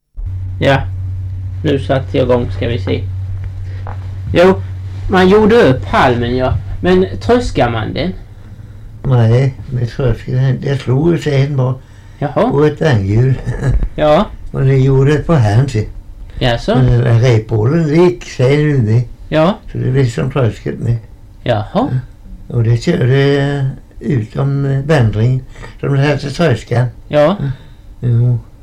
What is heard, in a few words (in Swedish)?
dialekter, svenska sagor; Folkminnen